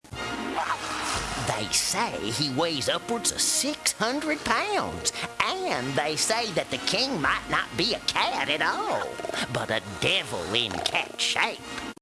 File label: hick